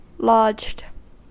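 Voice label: "lodged", US English; pronunciations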